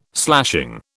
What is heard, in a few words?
"slashing", British